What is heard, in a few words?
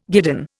"guidon", Indian